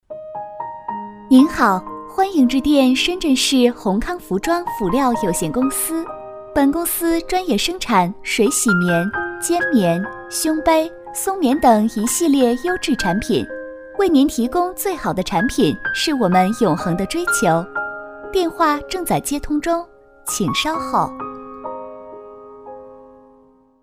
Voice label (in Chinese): 彩铃 服装公司 彩铃, 女国